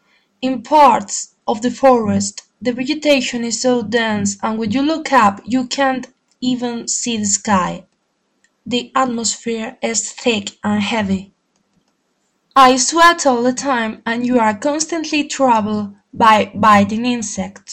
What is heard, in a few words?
english reading aloud